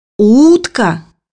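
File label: "duck", Russian; bukvar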